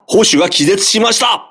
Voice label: voice message gunner contused, crew ships japanese